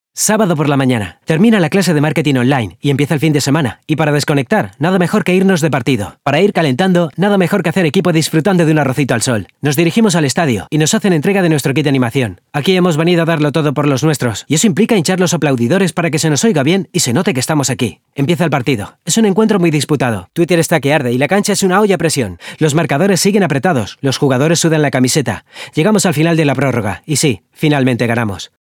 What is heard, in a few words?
spot TV